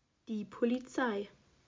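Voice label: "Polizei", German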